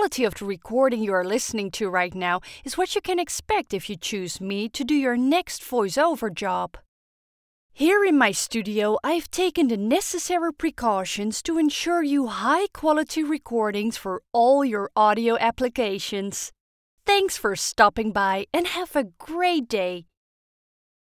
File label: English; DoDutch Productions